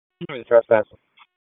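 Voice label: Raleigh Police Southeast District Dispatch, p25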